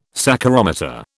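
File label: "saccharometer", British English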